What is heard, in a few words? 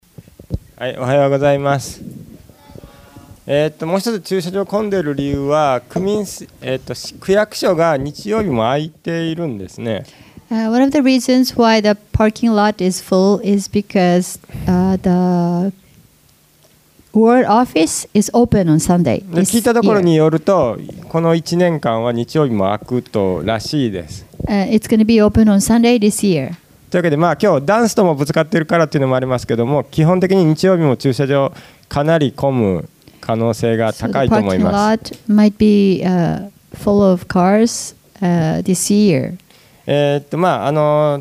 announce; sunday message 2018